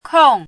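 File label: "kong" fourth tone, pinyin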